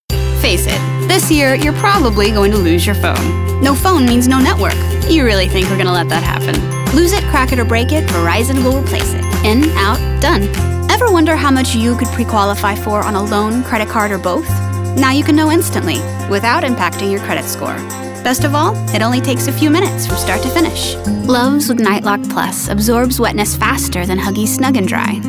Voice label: demo commerical